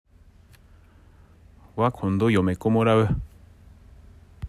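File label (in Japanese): 津軽方言 例文, わ、今度 嫁こ もらう; （私は今度、妻を迎える）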